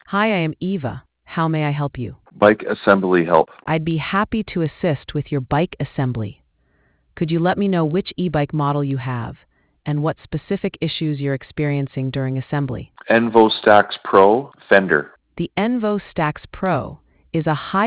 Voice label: conversation